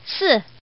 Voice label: Woman